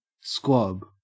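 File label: "squab", Australian English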